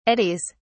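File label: "eddies", British English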